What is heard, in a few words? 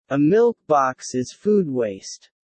low; VR classroom Test